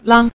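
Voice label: "lang", Mandarin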